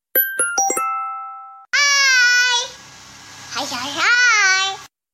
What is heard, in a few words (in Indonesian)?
nada notifikasi hai hai hai haiii; bocah terkenal di jejaring sosial Indonesia